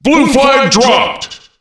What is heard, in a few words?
blue flag dropped, Announcer Voice; Unreal Tournament; ut2003